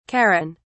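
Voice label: "charon", British English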